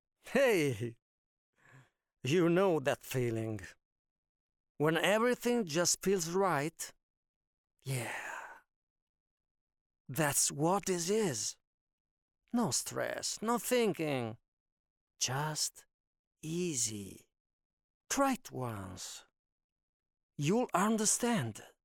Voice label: english e-learning

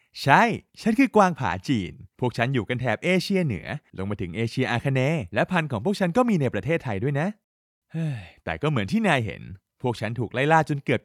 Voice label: thai animation

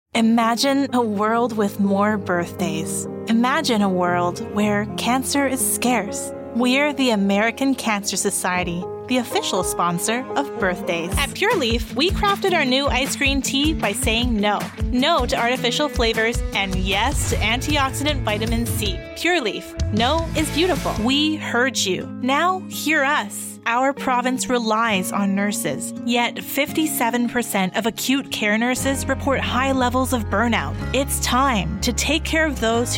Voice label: english canadian commercial

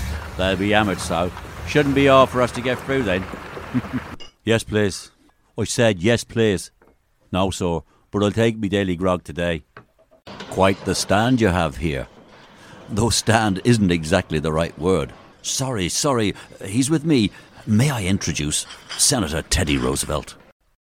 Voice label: english irish

